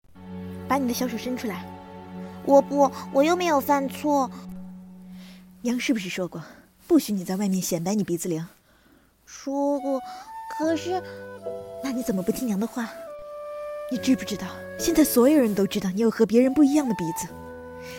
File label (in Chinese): 同时分饰母女二人